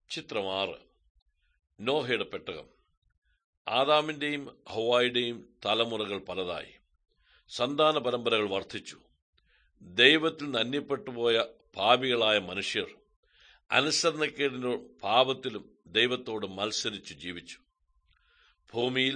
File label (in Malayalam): good news malayalam, drama; bible; world bible translation center nt drama